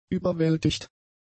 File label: "überwältigt", German; adjectives